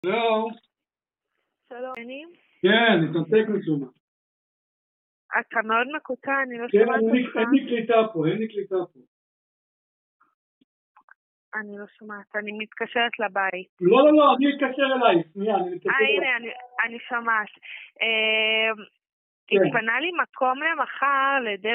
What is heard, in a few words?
call recording